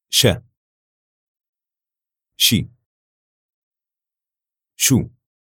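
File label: "sha shi shu", Arabic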